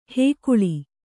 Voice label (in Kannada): "ಹುಗುಳು", kannada